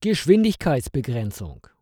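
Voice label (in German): "Geschwindigkeitsbegrenzung", German; Norddeutschland (Hamburg)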